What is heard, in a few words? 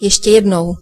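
check answer, Chinese; gcompris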